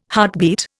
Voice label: "heartbeat", Indian